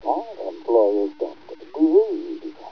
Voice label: mumble; gman